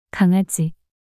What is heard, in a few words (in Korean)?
"강아지" 단어 여자